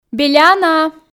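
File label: "беляна" Komi, female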